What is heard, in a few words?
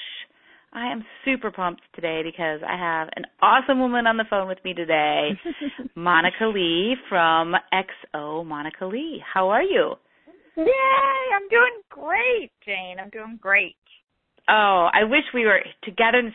Podcast